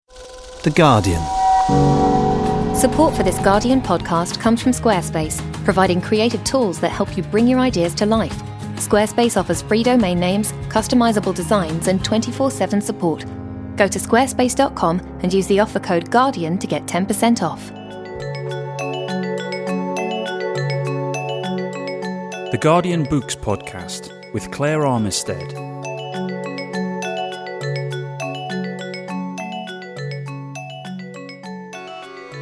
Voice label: Water poetry ocean river